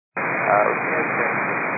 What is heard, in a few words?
122 925MHz, scanner radio